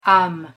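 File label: "ame", French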